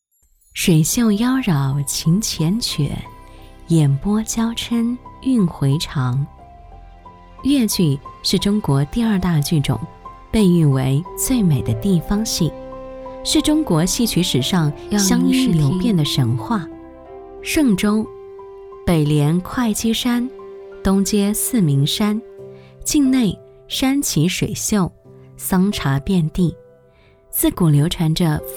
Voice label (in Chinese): 女 专题 纪录; 越剧讲解稿